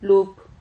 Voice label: "lup", Silabas

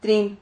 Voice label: "trin", Silabas

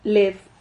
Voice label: "lef", Silabas

